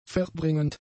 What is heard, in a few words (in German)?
"verbringend", German; adjectives